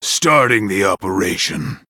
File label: Male Dracthyr